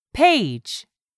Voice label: "PAGE", English; 3000 words